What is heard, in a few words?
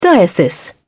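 "diocese", British English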